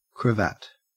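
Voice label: "cravat", Australian English; pronunciations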